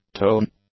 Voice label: "tone", English; nouns